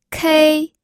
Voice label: "kei1", pinyin

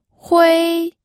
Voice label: "hui1", pinyin audio

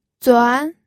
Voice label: "zuan2", pinyin

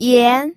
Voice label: "yan2", pinyin

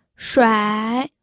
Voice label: "shuai3", pinyin

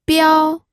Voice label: "biao1", pinyin

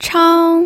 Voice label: "chang1", pinyin

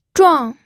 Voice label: "zhuang4", pinyin

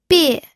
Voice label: "bie4", pinyin

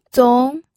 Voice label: "zong2", pinyin

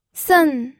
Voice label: "sen4", pinyin

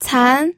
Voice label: "can2", pinyin

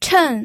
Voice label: "chen4", pinyin

